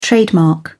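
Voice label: "trademark", British English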